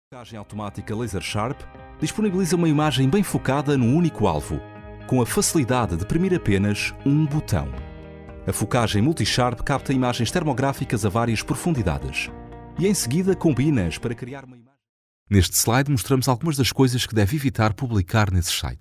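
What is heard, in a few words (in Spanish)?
corporativo, Portuguese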